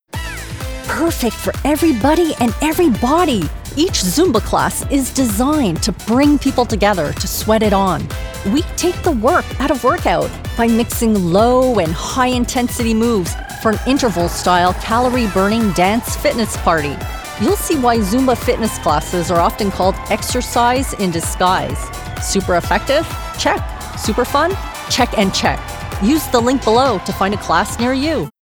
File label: EXPLAINER; ZUMBA